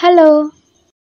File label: hello girl voice